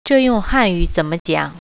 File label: in chinese, Mandarin